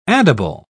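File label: "addible", English, British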